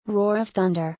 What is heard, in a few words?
"Roar of Thunder", English; thunder-and-lightning-in-english